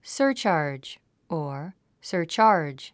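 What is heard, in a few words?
"surcharge", English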